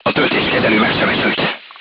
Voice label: voice message charger wounded, crew tanks hungarian